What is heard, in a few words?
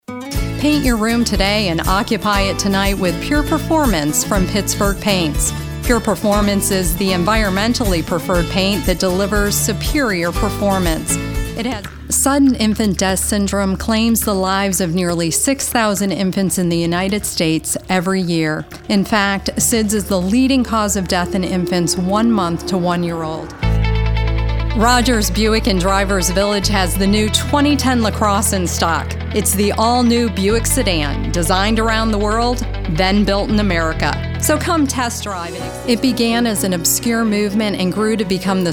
Voice Over